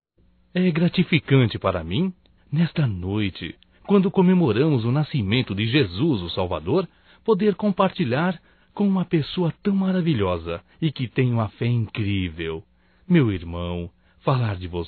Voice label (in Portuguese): NATAL IRMAO, masc; Natal e ano novo; Telemensagens 2017